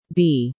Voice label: B female